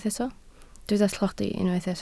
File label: "tlodi", Welsh